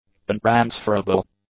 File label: "untransferable", english; adjectives